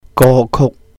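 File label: "gō kùk", Cantonese; song; 歌曲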